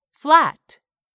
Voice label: "FLAT", English; 3000 words

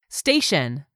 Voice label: "STATION", english; 3000 words

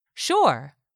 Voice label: "sure", english; 3000 words

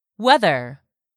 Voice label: "whether", English; 3000 words

